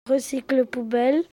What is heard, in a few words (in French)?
"poubelle", mot; Recycle poubelle